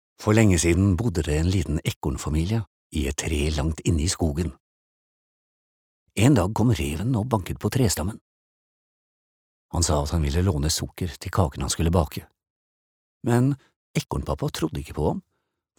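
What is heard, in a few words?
Norwegian childrens book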